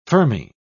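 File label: "fermi", English, British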